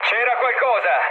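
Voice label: Italian trick mood low, speech; War Thunder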